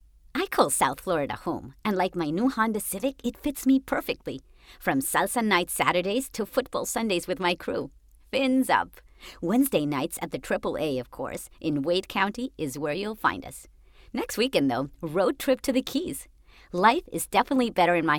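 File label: Spanish, English, Latina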